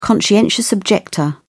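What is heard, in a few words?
"conscientious objector", British English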